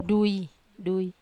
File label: "dui i", Wamesa